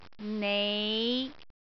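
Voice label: "nei5", Cantonese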